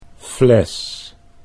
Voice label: "fles", Dutch; 'bottle'